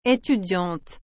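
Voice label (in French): "etudiante", French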